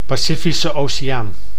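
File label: "Pacifische Oceaan", Dutch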